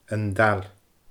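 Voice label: "An Dail", Scottish Gaelic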